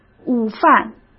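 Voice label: "午饭" Mandarin Chinese; hsk; chinese-flashcards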